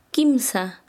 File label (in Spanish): "tres", Quechua Chanka